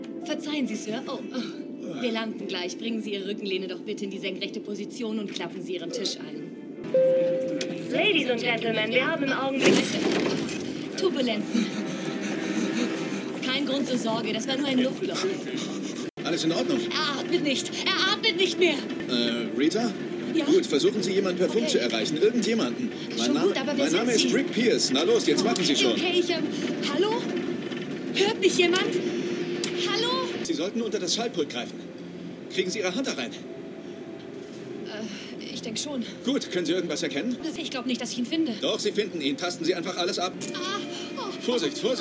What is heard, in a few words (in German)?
Synchron